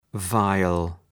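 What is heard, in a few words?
"viol" English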